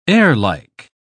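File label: "airlike", British English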